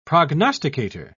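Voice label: "prognosticator", British English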